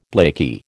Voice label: "blakey", American

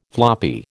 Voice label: "floppy", American

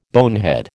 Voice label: "bonehead", American

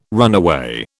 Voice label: "runaway", British

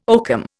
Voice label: "oakum", Indian

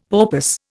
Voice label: "porpoise", Indian; Dictionary

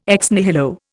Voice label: "ex nihilo", Indian

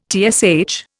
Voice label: "TSH", Indian

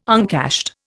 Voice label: "uncashed", Indian

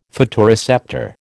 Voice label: "photoreceptor", American

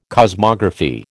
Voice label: "cosmography", American

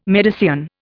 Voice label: "Medicean", Indian

